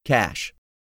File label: "CASH", English; 3000 words